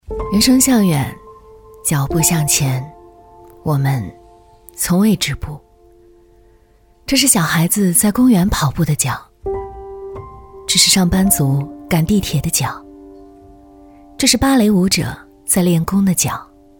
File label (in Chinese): 女 走心旁白; 清华池